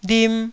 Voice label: "lim1", Taiwanese syllable

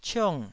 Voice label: "ciong4", Taiwanese

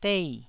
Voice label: "dei4", Taiwanese

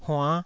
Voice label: "huann5", Taiwanese syllable

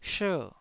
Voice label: "sher4", taiwanese Syllables

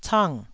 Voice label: "cang4", taiwanese syllables

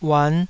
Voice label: "uan5", Taiwanese

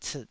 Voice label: "ciit3", Taiwanese

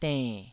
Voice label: "denn3", Taiwanese syllable

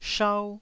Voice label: "shau1", taiwanese Syllables

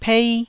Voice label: "pei1", taiwanese

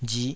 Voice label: "rih5", taiwanese syllable

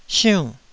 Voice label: "siunn4", Taiwanese

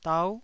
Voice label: "dau9", Taiwanese syllable

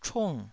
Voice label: "chung4", taiwanese

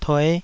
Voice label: "tue5", taiwanese

